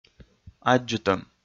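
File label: "аддзытӧм", Komi, male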